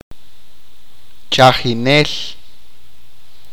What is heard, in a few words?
"watchman", achi